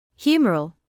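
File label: "humoral", British English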